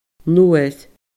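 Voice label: "nuair", Scottish Gaelic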